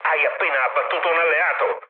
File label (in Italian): player killed ally, mood low, speech it